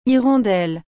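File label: "hirondelle", French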